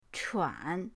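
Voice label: "chuan", Mandarin pinyin, third tone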